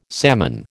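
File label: "salmon", American English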